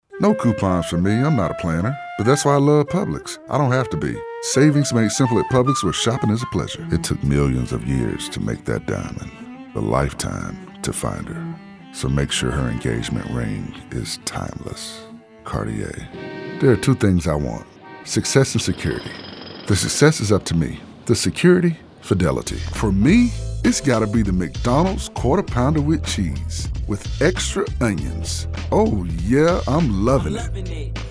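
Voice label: Commercial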